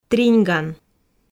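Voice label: "триньган", Komi, female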